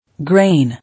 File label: "Grain", English